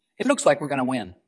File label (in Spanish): Voice Acting es M